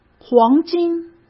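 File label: "黄金", Mandarin Chinese; chinese flashcards; hsk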